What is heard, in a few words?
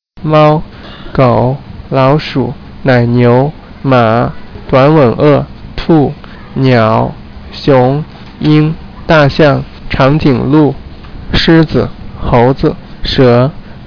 chinese; animals